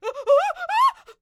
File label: Enemy Generic Human Spawn Disbelief